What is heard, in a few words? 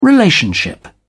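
"relationship", British English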